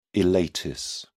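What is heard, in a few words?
"ileitis", English, British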